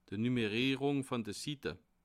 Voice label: "nummerierung", alsace

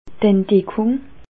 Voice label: "entdeckung", alsace

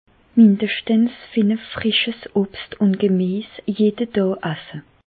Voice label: mindeschtens, Bas Rhin; medecine; manger au moins cinq fruits frais et légumes chaque jour

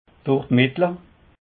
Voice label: "durdmittle", alsace

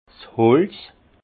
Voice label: "holz", alsace; vin; bois

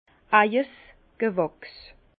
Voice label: "eijesgewachs", Bas Rhin; vin; production personnelle du vigneron

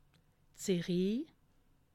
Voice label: "serie", alsace

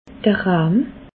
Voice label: "rahm", alsace

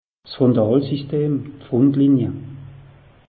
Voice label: "frontalsystem", alsace; meteo

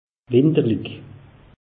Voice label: "winterlich", alsace; meteo

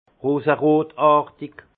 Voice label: "roserotartig", alsace; vin; rosâtre

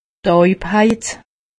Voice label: "taubheit", alsace; medecine

